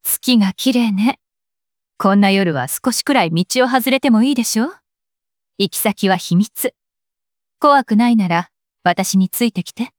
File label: anime voice